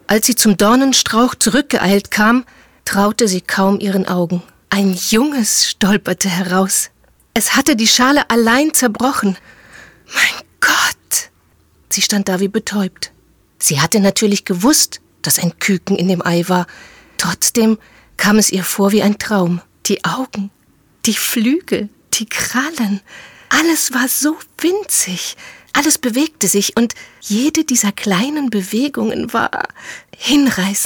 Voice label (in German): Hoerbuch das Huhn